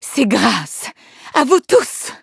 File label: victory, fr; cookierunwiki